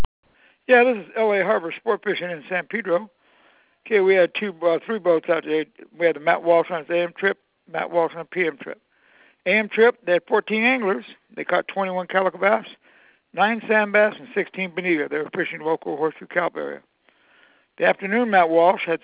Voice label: voice message